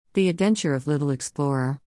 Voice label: narration